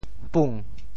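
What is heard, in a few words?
"pung2", Teochew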